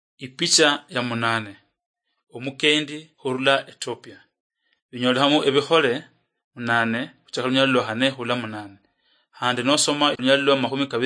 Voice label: acts of the holy spirit luyia marachi, drama